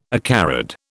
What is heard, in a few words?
"acarid", British